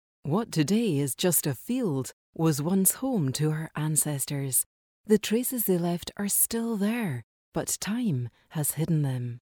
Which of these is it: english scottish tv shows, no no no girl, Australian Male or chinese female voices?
english scottish tv shows